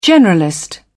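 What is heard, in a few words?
"generalist", British English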